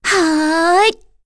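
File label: Vox Casting, korean; Kirze; kingsraid; voices heroes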